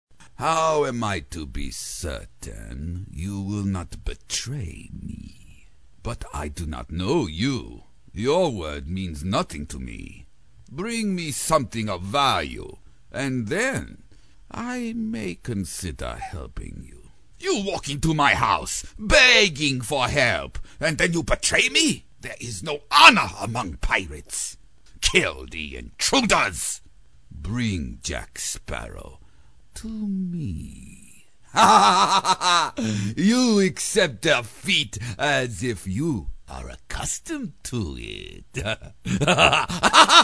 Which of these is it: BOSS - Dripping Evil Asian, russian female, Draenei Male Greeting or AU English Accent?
BOSS - Dripping Evil Asian